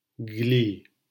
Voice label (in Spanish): "gli", silabas; lectura